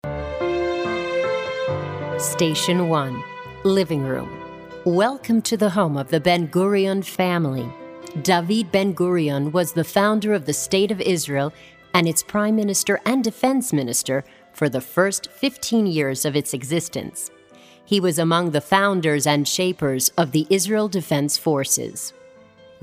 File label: english american